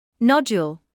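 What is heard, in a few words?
"nodule" English, British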